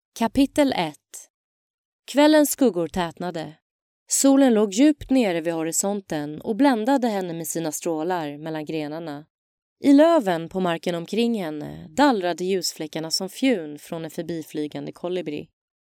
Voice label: female swedish voice over character